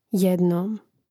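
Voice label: "jednom", Croatian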